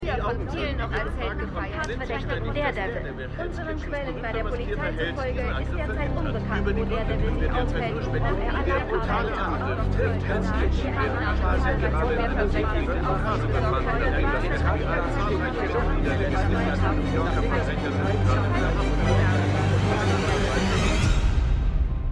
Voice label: Reporter Reporterin

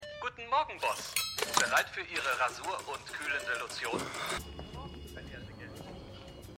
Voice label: Wecker Maennl Durchsage; HELLO TOMORROW!

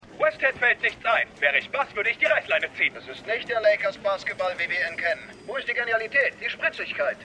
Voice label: Radiokommentator; Winning Time

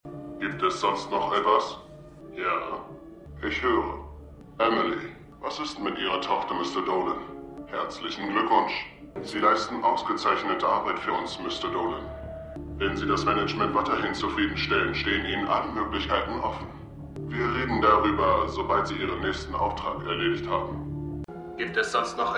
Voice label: Verzerrte Stimme; Falling Water

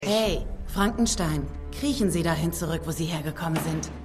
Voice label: Zwischenruferin, Stimmproben; The Flash

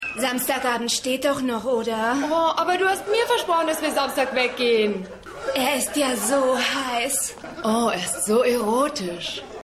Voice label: Frau; Stimmproben

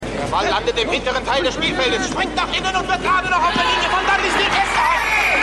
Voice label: Stadionsprecher; Stimmproben

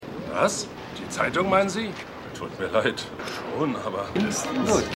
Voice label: Mann Auf Strasse; AusgerechnetAlaska

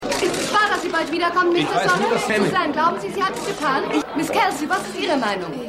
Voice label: Reporterin

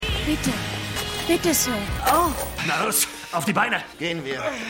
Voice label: Bettlerin Polizist; Lucifer

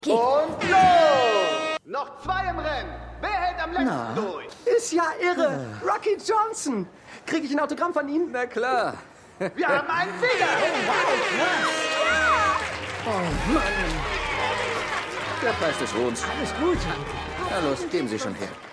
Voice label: Moderator; Young Rock; Kunde Steve

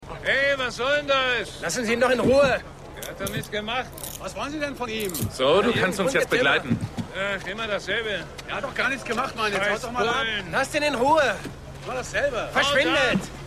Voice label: Maenner Auf Strasse; The Wire

